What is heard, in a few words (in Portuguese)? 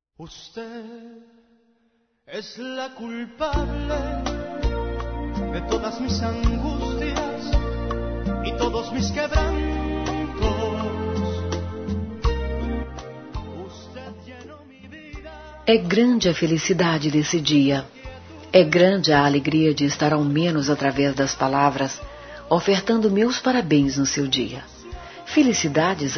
Aniversário Neutro F; Aniversários; Aniversários diversos